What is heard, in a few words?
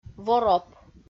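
"вороп", Komi, female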